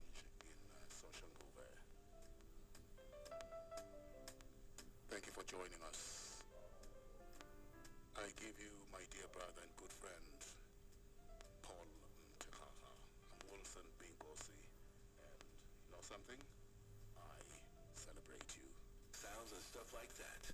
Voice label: Tv Chatter